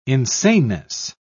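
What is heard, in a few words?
"insaneness", British English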